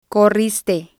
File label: "corriste", spanish